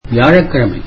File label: tamil Thursday